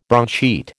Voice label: "broadsheet", American